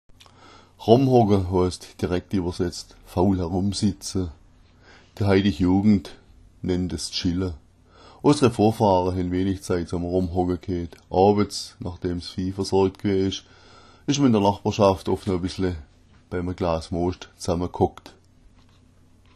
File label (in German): "rommhocka", schwaebisch